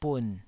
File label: "bun3", taiwanese